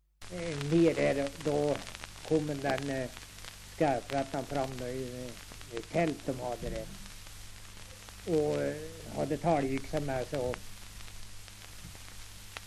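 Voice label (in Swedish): Tuna Medelpad, svenska sagor dialekter; Folkminnen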